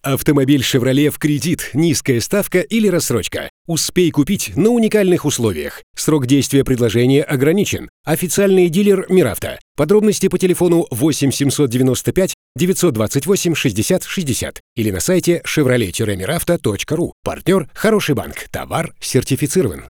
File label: Taimer M voice